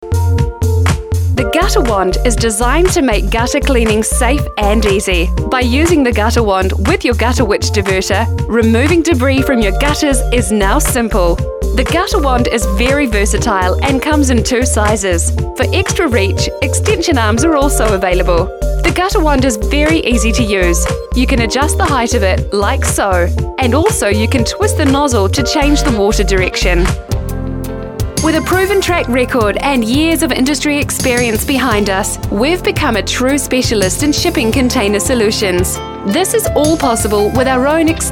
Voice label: on hold